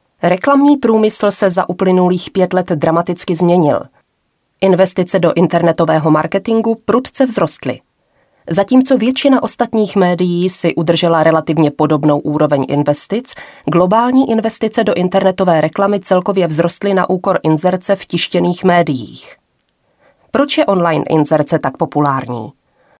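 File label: Female Czech documentary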